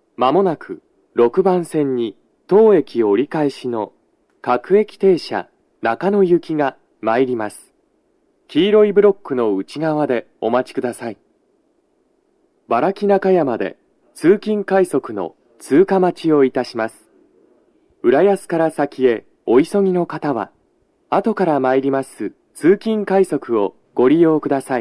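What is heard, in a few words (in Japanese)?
接近放送 男声, 各駅停車 中野行き, 原木中山で、通勤快速 の通過待ちを致します; 収録者:こたけ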